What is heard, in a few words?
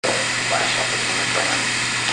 burn, evp; Lost Church